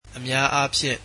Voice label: "usually", Burmese